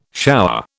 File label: "shower", British